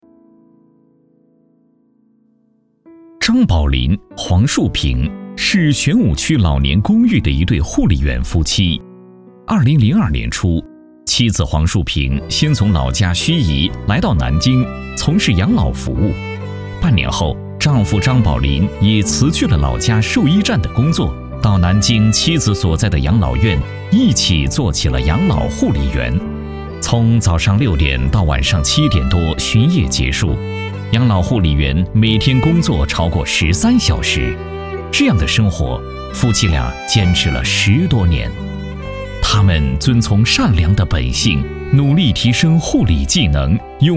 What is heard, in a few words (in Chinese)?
专题 人物 养老院护理员 沉稳